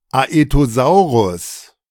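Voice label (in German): "Aetosaurus", German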